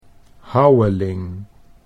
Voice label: "Houweling", Dutch

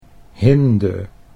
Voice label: "hinde", Dutch

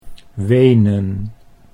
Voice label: "Wenen", Dutch

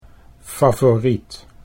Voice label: "favoriet", Dutch

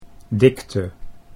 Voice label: "dikte", Dutch

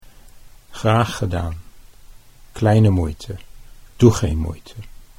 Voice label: "graag gedaan", Dutch

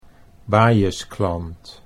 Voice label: "bajesklant", Dutch

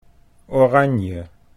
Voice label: "oranje", Dutch

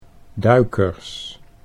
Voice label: "duikers", Dutch

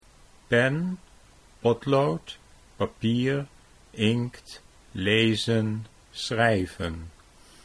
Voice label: "pen potlood", Dutch

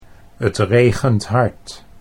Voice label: "t regent hard", Dutch

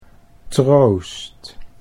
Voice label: "troost", Dutch

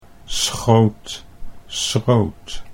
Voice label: "schoot schroot", Dutch

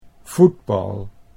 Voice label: "voetbal", Dutch

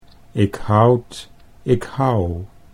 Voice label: ik houd ik hou, Dutch

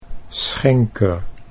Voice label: "schenker", Dutch

